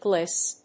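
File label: "GLIS", English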